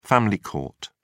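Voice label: "family court", English, British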